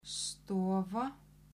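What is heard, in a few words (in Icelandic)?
"stofa", Icelandic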